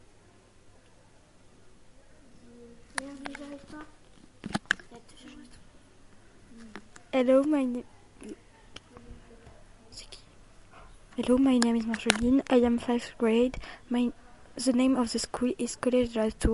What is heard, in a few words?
spanish room